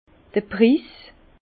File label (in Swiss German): "priis", Bas Rhin; vin; prix